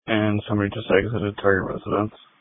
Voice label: Police Tactical Encrypted